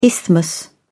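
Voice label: "isthmus", British English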